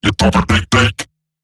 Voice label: Player Spotted, Robot Large